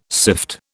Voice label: "sift", British